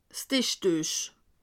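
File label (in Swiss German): "tischtuech", Alsatian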